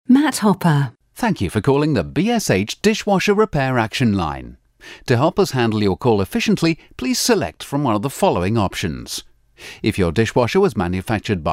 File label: corporate